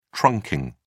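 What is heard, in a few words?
"trunking", British English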